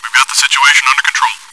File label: cheer; svencoop